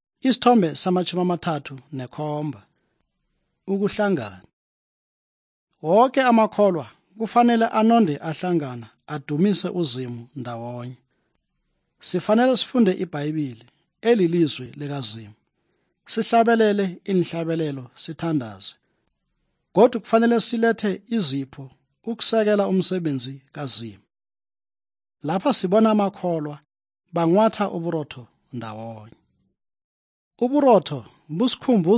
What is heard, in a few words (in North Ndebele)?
good news ndebele southern, drama